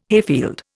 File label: "hayfield", Indian